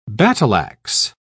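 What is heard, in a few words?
"battle-ax", British English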